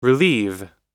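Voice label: "relieve", English; oxford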